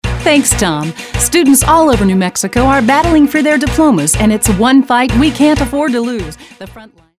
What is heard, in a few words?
newscaster